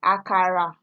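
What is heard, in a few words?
"akara", Nigerian Pidgin, female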